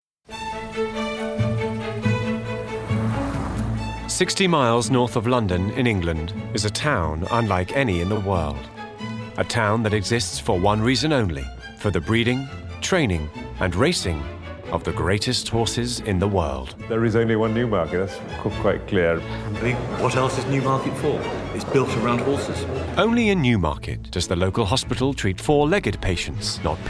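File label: documentary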